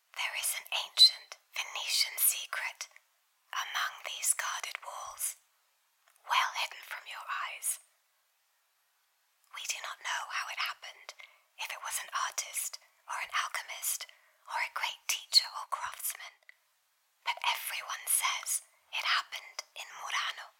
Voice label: sussurri, eng; carne